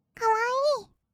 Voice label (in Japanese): かわいい, kawaii voice; ASMR; 色々なワンショット